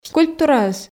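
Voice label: "скульптураыс" Komi, female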